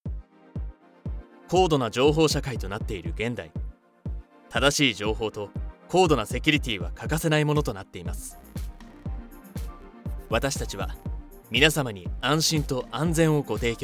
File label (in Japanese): NA 落ち着き